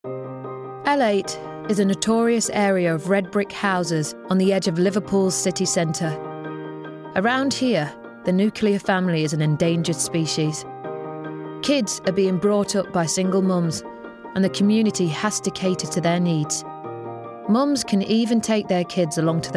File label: Docu